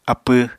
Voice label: "apw", apatani

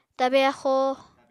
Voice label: "tabe axóo", Apatani

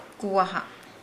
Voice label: "why", Nukuoro

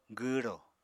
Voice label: "gwwrò", Apatani

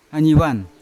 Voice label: "anivan" wasp, Yawa

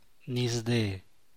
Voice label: "bsha'ts", San Mateo Macuilxochitl Zapotec; yellow (only for corn); amarillo (solo para maíz)

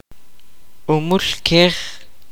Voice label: donkey, kaqchikel

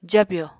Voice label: "jabyò", Apatani

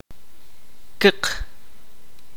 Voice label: "red", Kaqchikel

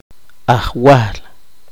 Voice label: poqomchi; soplador

